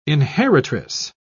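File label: "inheritress", British English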